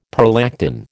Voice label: "prolactin", American

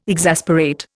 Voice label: "exasperate", Indian

